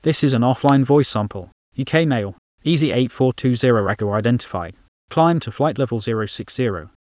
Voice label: UK